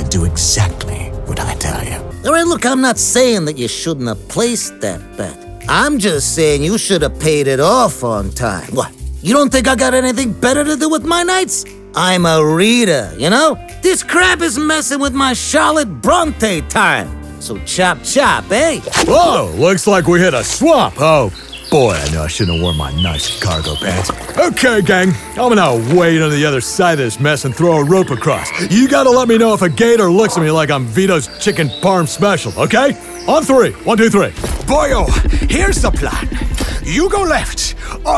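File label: animation men, Voice Over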